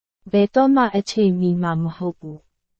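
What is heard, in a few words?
Burmese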